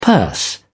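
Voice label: "purse", British English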